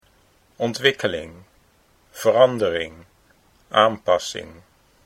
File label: "ontwikkeling verandering", Dutch